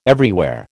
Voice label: "everywhere", American

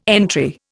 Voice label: "entry", Indian

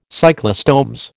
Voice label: "cyclostomes", American

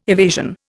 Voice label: "evasion", Indian

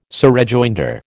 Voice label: "surrejoinder", American

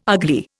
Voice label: "ugly", Indian

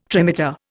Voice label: "trimeter", Indian

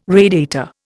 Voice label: "radiator", Indian

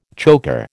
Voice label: "chocker", American

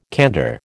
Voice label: "candour", American

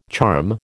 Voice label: "charm", American

